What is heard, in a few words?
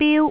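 "biu1", Cantonese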